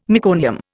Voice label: "meconium", Indian